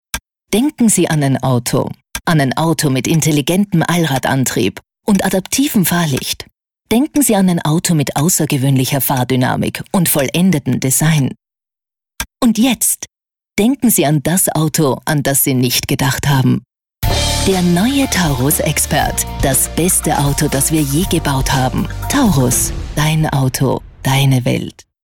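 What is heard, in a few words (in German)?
Austrian, Werbung Neutral; Fremdtake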